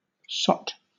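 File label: "sot", British English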